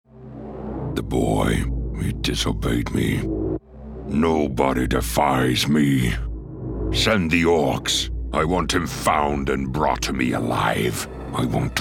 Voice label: Gaming Orc Leader